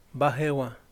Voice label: "neblina", Yaqui; Vocabulary